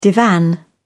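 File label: "divan", British English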